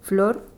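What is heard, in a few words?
"flor", Spanish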